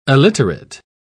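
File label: "aliterate", British English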